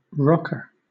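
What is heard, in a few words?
"rocker", British English; pronunciations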